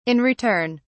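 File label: "in return", British English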